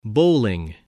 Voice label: "bowling", English

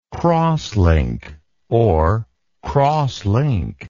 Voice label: "crosslink", english

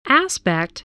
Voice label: "aspect", English

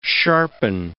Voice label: "sharpen", English

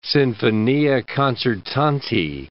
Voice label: "sinfonia concertante", words

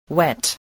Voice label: "wet", spelling

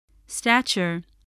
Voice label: "stature", voice

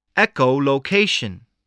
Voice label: "echo-location", English

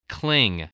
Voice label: "cling", spelling

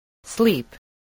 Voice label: "sleep", voice, spelling

